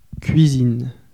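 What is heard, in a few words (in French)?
"cuisine", French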